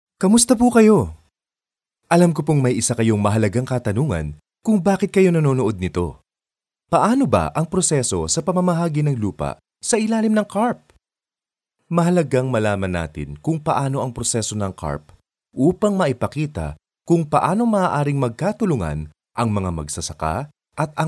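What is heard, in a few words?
Philippines tagalog informative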